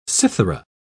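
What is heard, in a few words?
"cithara", British English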